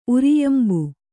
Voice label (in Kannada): "ಉರಿಯಂಬು", Kannada